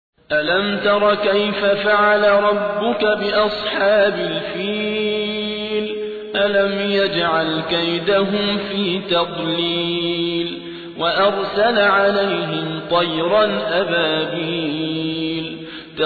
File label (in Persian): ترتیل سوره فیل; صابر عبدالحکم